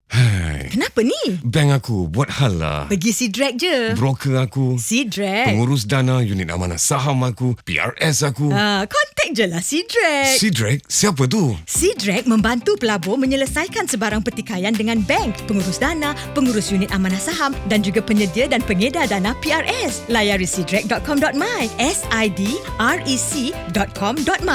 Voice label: Call Malay